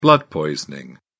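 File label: "blood poisoning", British English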